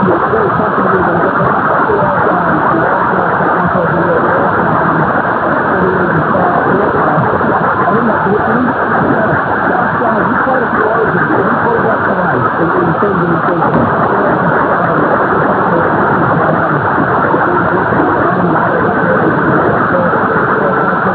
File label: nz radio sport